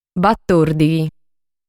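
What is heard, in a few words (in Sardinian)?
"Batòrdighi", Sardinian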